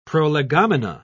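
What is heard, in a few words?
"prolegomena", British English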